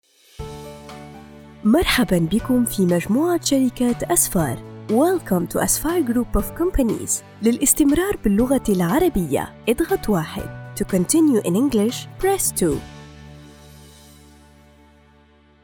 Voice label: Multilingual IVR On Hold Voicemail Greetings